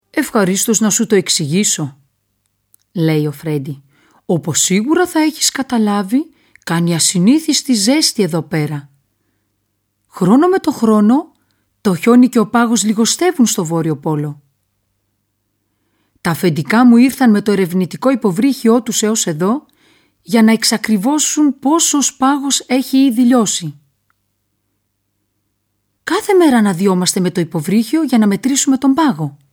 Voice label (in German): griech; hueber